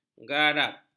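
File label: "garap", Malay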